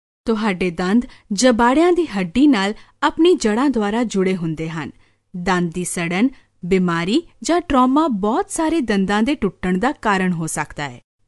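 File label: Punjabi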